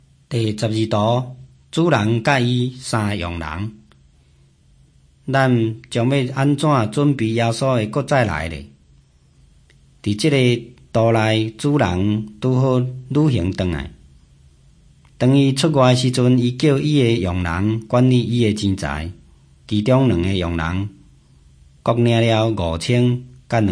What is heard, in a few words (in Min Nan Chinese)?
taiwanese, drama